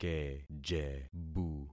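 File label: "kejebu", concatenated syllables; Affixing experiment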